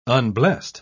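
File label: "unblest", British English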